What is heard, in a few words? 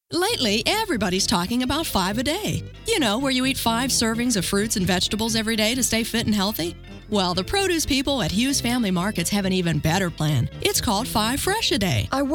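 American commercial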